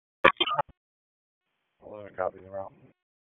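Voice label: Police Downtown District Dispatch, p25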